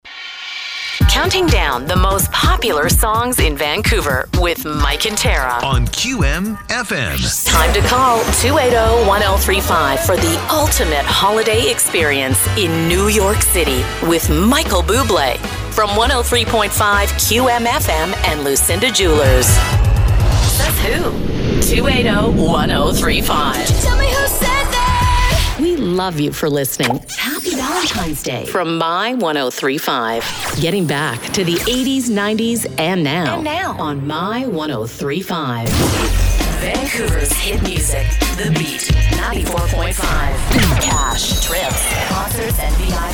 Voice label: DEMO IMAGING